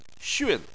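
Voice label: "xun", Chinese, fourth tone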